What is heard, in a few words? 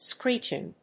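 "screeching", English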